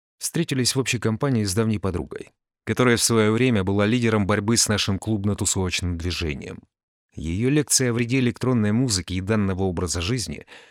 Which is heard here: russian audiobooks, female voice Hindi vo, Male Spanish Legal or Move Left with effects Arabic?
russian audiobooks